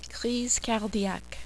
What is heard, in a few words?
"crise cardiaque", French